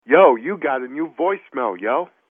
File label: New Voicemail Yo